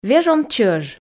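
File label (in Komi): "вежон чӧж", Komi, female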